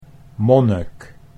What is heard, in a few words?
"monnik", Dutch